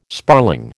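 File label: "sparling", American English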